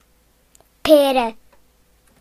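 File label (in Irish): "pair", Irish; gcompris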